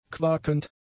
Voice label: "quakend", German; adjectives